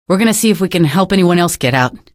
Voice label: evac no, female; video games; npc; source engine; episodic; episode 1